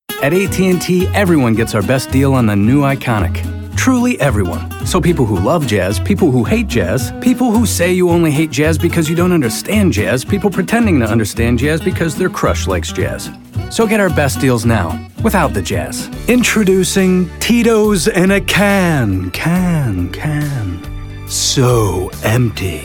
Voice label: Vo Commercial